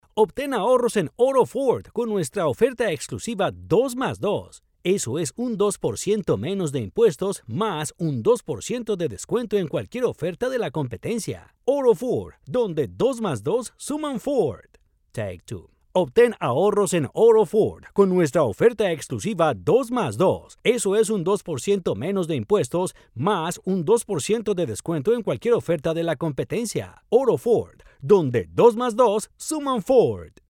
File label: spanish latin american announcements